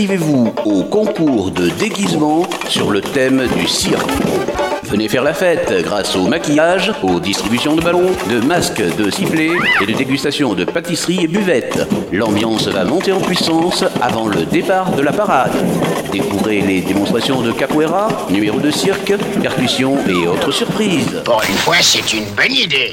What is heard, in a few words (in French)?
message sonore carnaval; coulounieix chamiers